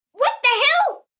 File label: What The Hell, Reaction, 1 boy, 8 yrs.; Talking Sound Effects